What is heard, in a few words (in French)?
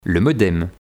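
"le modem", French; Les périphériques; vocabulaire PMTIC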